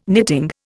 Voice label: "knitting", Indian

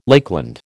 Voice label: "Lakeland", American

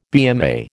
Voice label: "BMA", American

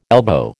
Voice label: "elbow", American

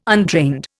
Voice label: "untrained", Indian

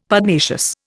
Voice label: "Pugnacious", Indian; Dictionary

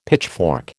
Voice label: "pitchfork", American

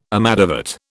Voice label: "amadavat", British

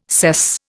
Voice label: "sess", Indian

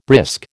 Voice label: "brisk", American

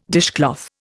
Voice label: "dishcloth", Indian

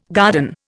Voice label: "garden", Indian

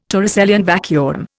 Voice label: "Torricellian vacuum", Indian

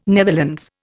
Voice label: "Netherlands", Indian

